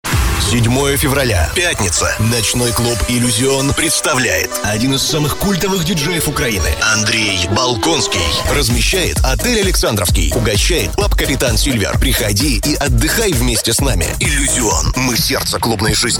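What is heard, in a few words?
Russian promo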